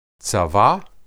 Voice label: "tsaba", Hebrew